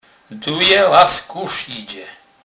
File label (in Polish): "duc", kurpie; slownik